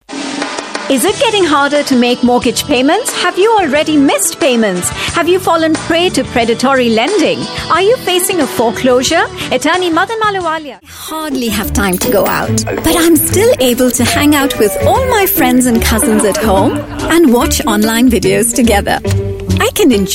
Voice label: Indian English, Female